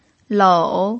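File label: "lou", Mandarin Chinese pinyin, tone 3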